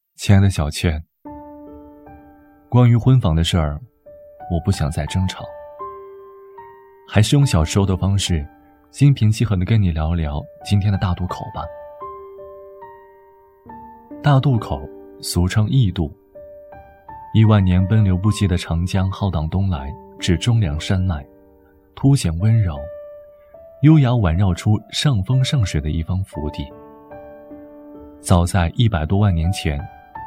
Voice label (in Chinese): 男青年讲述; 大渡口